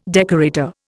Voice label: "decorator", Indian